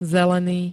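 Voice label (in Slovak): "zelený", Slovak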